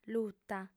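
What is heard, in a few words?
"luta", female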